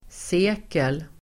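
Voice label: "sekel", Swedish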